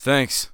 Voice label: Thanks, Human Male; zps; HumanMale-01